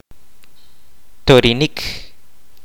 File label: "throw", kaqchikel